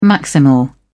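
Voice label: "maximal", British English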